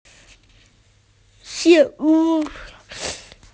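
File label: "food", Mandarin; shi2wu4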